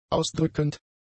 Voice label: "ausdrückend", German; adjectives